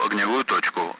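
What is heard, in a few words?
Speech, Russian; Allied Flight; bunker; DCS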